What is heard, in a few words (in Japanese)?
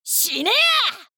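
「死ねや！」, 女の子 オラオラ系少女 戦闘ボイス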